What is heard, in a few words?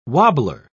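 "wobbler", English, British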